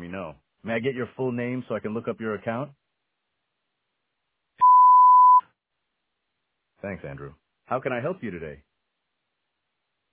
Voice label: voice ai call beeped